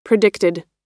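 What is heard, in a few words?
"predicted", American English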